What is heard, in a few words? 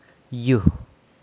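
"yu", soil, San Lucas Quiaviní Zapotec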